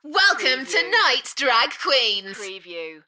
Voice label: UK female, Welcome tonights drag queens, Enthusiastic; beanstalk audio